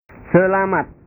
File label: "selamat", bahasa